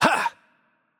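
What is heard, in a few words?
German, attack light; de DE; VO; bloom minigame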